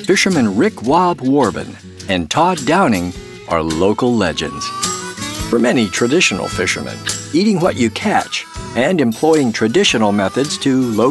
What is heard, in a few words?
fishing show narration